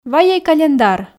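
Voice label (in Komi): вайӧй календар, Komi, female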